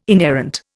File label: "inerrant", Indian